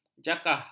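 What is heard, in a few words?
"jakah", Malay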